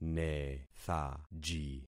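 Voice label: "nethaji", concatenated syllables; Affixing experiment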